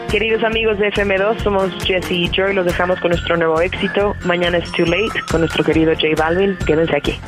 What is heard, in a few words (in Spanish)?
cuña